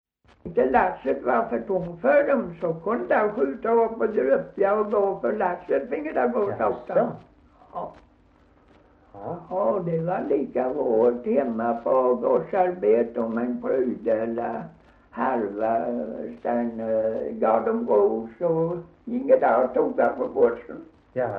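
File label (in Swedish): Gunnarp Halland, dialekter; Folkminnen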